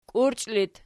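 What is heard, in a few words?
"kiurchi" locative, Archi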